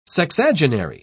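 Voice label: "sexagenary", British English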